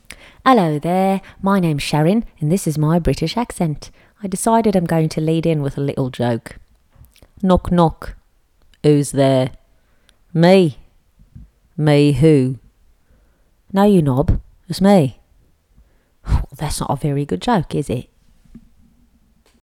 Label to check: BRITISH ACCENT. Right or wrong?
Right